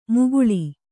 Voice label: "ಮುಕುಳಿ", Kannada